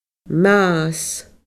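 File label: "mas fada", Scottish Gaelic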